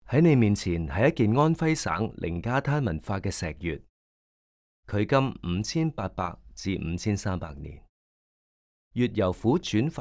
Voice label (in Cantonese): Can VO; audio guide